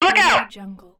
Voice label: female military radio voice look out

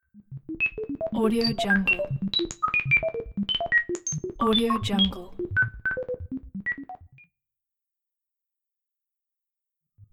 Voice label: droid robot voice

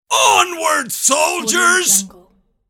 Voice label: male voice battle cry onward soldiers

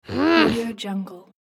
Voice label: cartoon angry voice

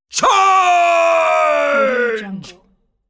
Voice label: military voice yelling charge

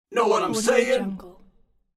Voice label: male saying know what im sayin

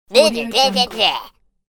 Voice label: cartoon mock protest voice

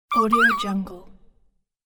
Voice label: cartoon cute quiet bye bye

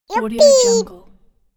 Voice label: childish cartoon yuppie voice

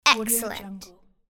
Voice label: female child saying excellent

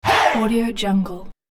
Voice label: male hey

